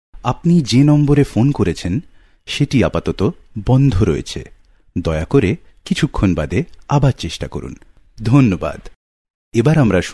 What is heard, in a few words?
Bengali Male